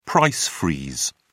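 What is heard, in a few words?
"price freeze", British English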